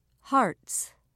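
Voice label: "hearts", British English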